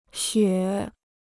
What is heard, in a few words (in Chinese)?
"雪", Chinese